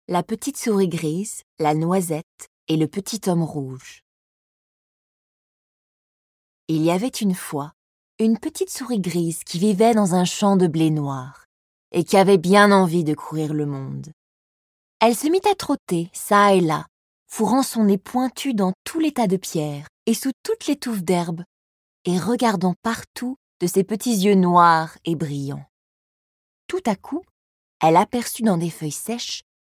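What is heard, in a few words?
French audio book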